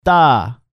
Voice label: "tta", Korean

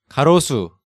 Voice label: "Garosu", Korean

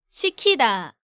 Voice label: "Sikida", Korean

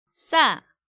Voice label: "Ssa", Korean

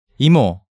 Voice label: "Imo", Korean

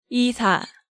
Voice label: "Isa", Korean

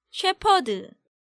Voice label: "Sheperd", Korean

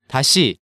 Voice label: "Dasi", Hangeul sounds

